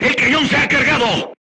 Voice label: voice message charged, crew tanks spanish